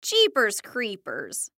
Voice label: Cartoon Voice Female; Cartoon Voices; Blastwave